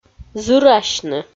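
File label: "зырасьны", Komi, female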